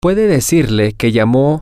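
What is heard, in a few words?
can You Tell Him Her That X Called From Y, spanish, male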